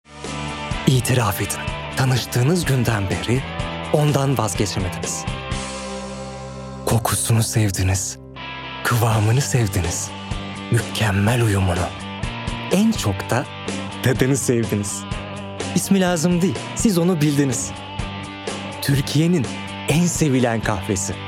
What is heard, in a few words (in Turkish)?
enerjik esprili, seslendirme